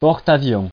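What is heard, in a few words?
"porte-avions", French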